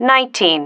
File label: "nineteen", female